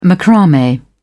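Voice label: "macrame", British English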